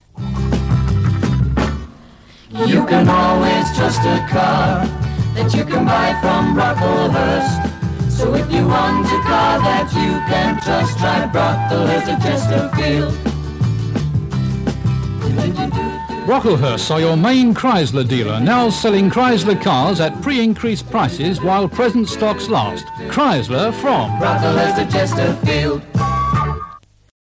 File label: Radio Advert; Radio Hallam; Brocklehurst of Chesterfield